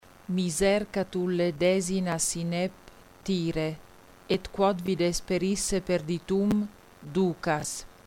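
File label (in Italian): coliambo, lettura metrica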